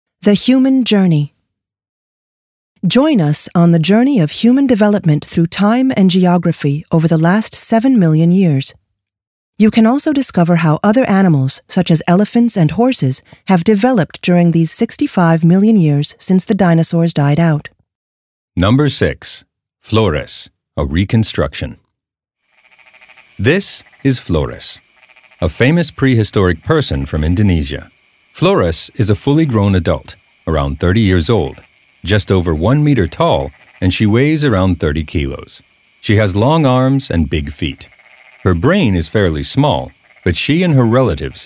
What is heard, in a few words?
Audioguide Eng; Flores - a reconstruction